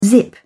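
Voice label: "zip", British English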